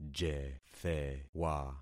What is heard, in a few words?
"jethewa", concat syllables; Affixing experiment